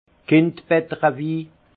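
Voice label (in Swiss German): "kindbetterwi", alsace Haut-Rhin; vin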